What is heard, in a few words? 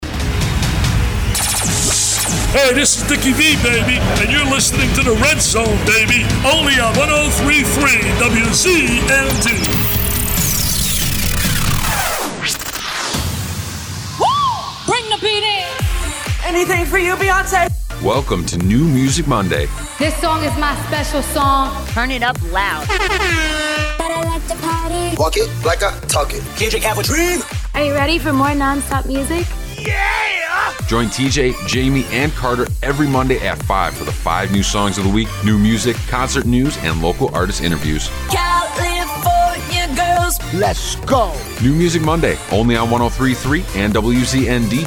Station Imaging